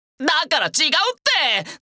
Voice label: Vo Male; gekiban